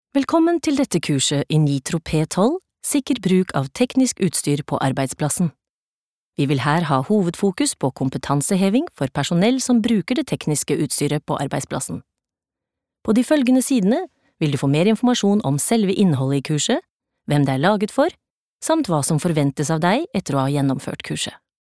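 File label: Norwegian elearning, kvinne; norsk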